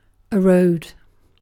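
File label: "road", British English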